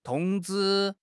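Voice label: "tong1 zhi1", Chinese; 汉语口语新时代-初级下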